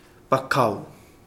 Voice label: wing, Kapingamarangi